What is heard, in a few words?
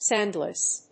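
"sandglass", English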